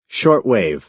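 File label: "short wave" American English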